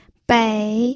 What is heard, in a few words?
"bei3", pinyin